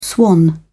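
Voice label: "swan", British English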